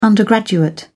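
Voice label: "undergraduate", English, British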